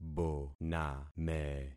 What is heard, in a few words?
"boname", concat syllables; Affixing experiment